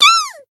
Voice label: Voice damaged jp; ilulu

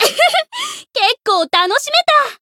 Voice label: win jp; yazuki

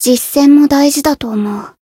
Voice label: win jp; ruina

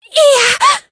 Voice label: Voice damaged; veina

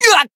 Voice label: damaged, jp

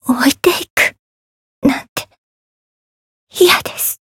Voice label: retire, jp

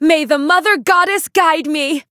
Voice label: Voice variable chant; flammelapis

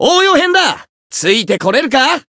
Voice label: variable chant jp

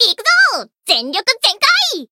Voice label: limitbreak jp; urania

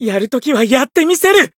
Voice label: variable chant jp

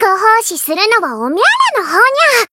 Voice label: encount, jp

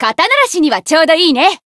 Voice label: chant jp

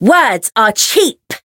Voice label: chant

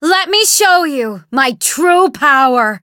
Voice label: Voice chant

